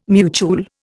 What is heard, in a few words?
"mutuel", Indian